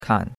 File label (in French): "kan3", chinois; Cours-de-Chinois